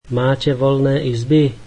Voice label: Do you have rooms available, slovak